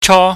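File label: "cho", Cantonese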